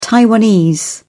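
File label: "taiwanese", British English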